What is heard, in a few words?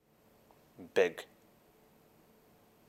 "big", English